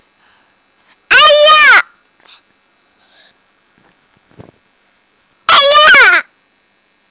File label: Baby Hello